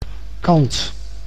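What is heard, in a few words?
"kant", Dutch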